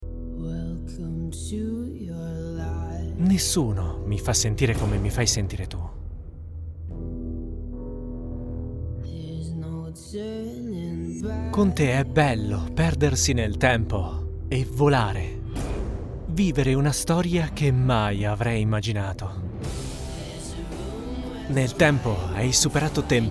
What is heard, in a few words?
Commercial